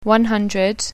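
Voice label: "one hundred", English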